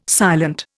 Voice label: "silent", Indian